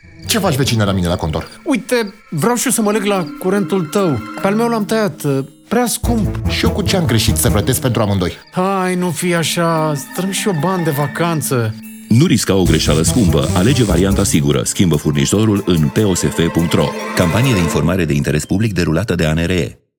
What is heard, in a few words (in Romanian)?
Spot Radio; ANRE